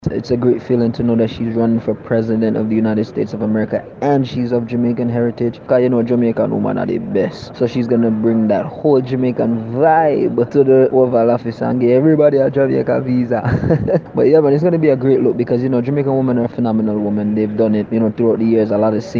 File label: VOXPOP